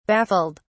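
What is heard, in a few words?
"baffled", British English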